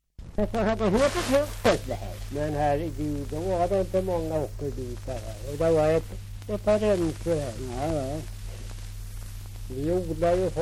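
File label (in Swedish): Björnlunda Södermanland, svenska sagor dialekter; Folkminnen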